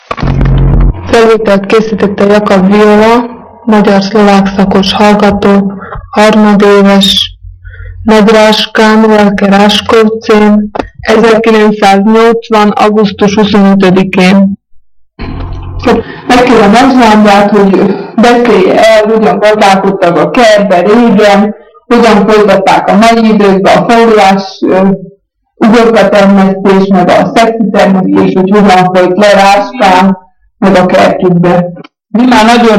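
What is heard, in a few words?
dialect collection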